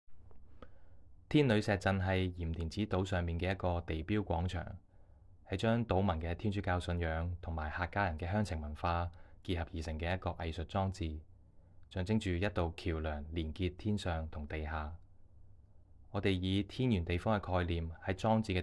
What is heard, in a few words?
Cantonese; Stone Shrine Circle